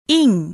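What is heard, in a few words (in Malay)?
"ing", Malay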